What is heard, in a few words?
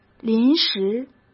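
"临时", Mandarin Chinese; chinese flashcards; hsk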